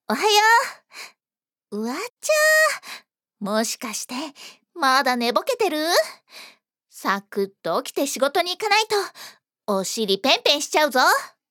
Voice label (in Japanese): ハイテンション, ハイテンションな女の子